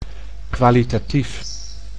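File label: "kwalitatief", Dutch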